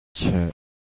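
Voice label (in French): "tho", ton bas, thailandaise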